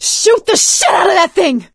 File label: battlecry tank, survivor voice; source engine; left 4 dead 2; producer